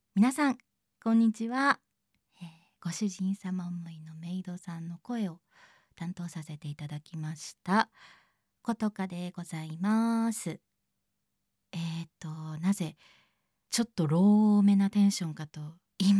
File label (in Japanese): フリートーク, ASMR; いぢわる嫉妬メイドによる、からかい&強引&愛情たっぷり夜伽訓練